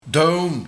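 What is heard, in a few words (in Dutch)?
"Down" Dutch dialect; Doen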